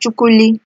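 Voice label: "chukuli", Nigerian Pidgin, female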